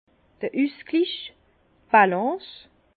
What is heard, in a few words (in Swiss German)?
"balance", Bas Rhin; harmonie; vin